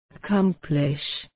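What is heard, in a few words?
"accomplish", British female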